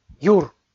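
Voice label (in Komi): "юр" Komi, male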